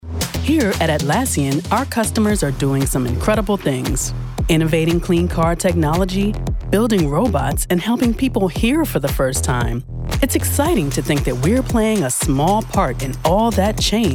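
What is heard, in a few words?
narration corporate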